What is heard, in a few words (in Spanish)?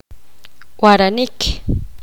poqomam escuchar